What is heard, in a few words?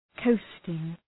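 "coasting", English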